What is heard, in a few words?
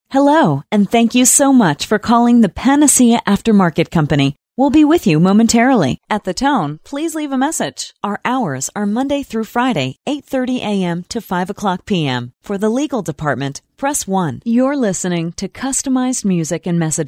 American Female